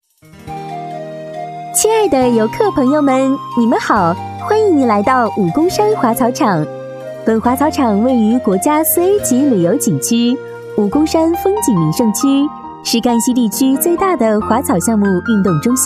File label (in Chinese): 语音导游 风景名胜区解说